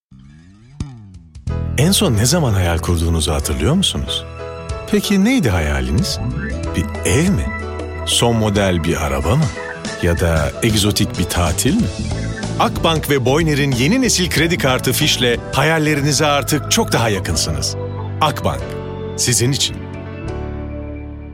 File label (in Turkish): enerjik esprili; seslendirme